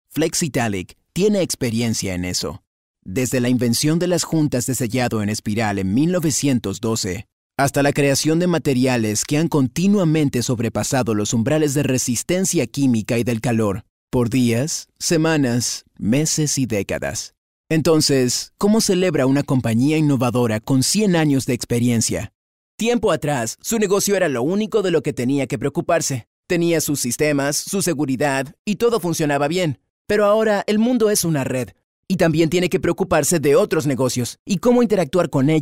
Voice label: latin male, corporate